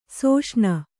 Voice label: "ಸುಷೇಣಿ", kannada